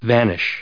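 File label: "vanish" English, US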